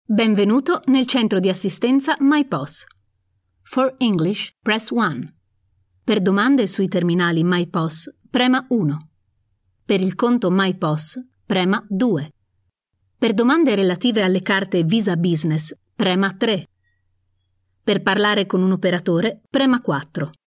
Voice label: (MOT) Italu balsas; moteris

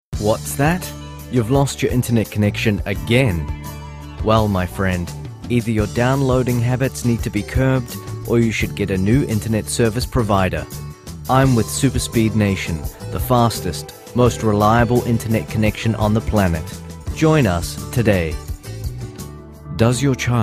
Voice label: (VYR) Australu balsas; vyras

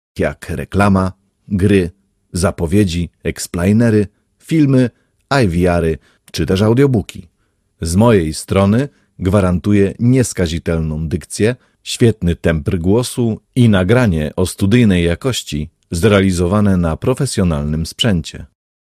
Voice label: VYR Lenku balsas, vyras

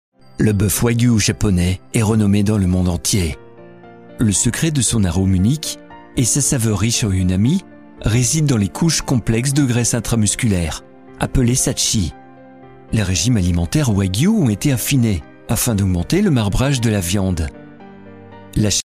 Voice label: VYR Prancuzu balsas, vyras

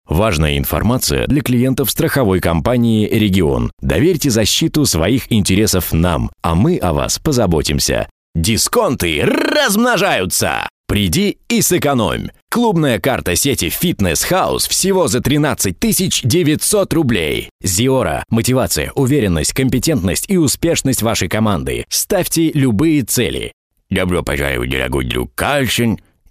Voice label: Rusiškas balsas, vyras

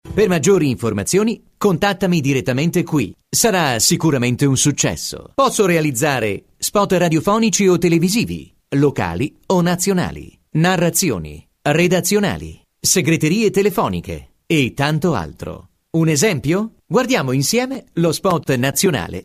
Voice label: VYR Italu balsas, vyras

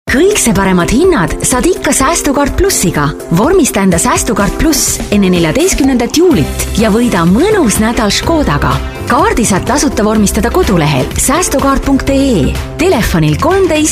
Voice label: (MOT) Estų balsas; moteris

